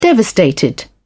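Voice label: "devastated", British English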